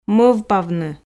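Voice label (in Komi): "мӧвпавны", Komi, female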